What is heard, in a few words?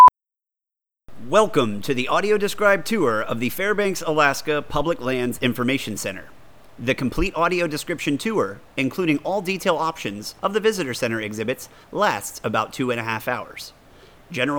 male